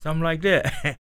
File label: SPOKEN, Blues Soul, TALK